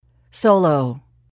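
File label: "solo", English word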